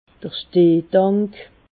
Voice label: "stehtank", alsace